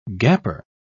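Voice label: "gaper", English, British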